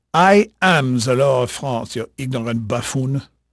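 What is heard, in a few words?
French male, voice; Pirates Of The Caribbean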